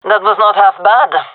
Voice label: compliment, gendermerie fem; vo